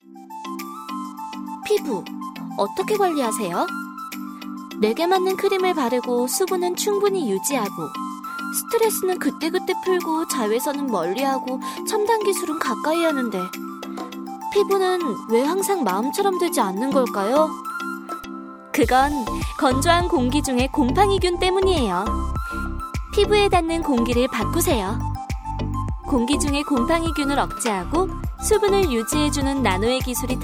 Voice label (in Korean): 광고 산뜻한